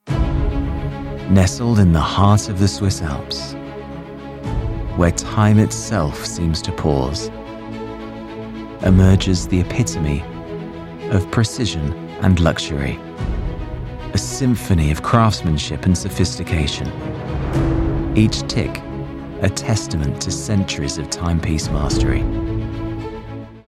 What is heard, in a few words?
Commercial Luxury Watch Ad; voice-over